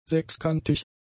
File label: "sechskantig", German; adjectives